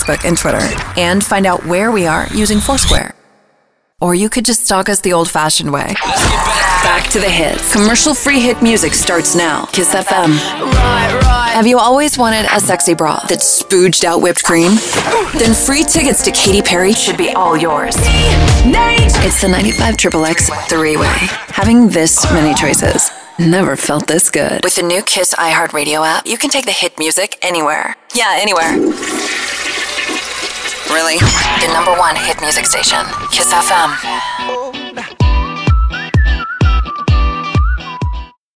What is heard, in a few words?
radio chr, radio imaging